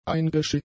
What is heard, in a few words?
"eingeschifft", German; adjectives